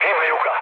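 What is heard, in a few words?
Serbian aircraft destroyed mood low, speech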